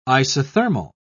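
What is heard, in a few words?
"isothermal", British English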